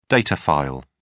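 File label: "data file", English, British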